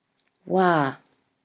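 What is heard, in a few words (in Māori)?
"wā", Māori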